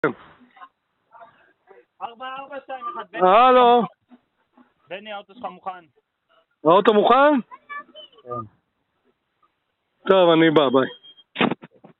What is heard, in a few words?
Call recording